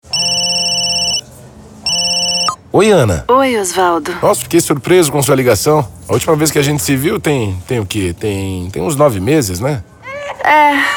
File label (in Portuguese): SPOT TELEFONEMA